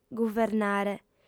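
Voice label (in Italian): "guvernare", lingua sarda, female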